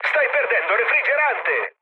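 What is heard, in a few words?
Italian damaged water mood med, speech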